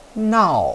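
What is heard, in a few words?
"nao", Mandarin Chinese, fourth tone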